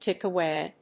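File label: "tick away", English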